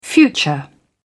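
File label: "future", British English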